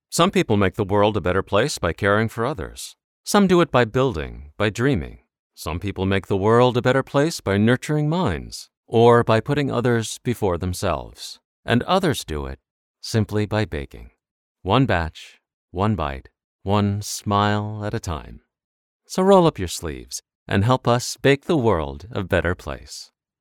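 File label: Commercial; Bake the World a Better Place - Warm - Sympathetic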